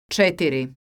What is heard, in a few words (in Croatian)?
"cetiri", croatian